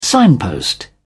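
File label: "signpost", British English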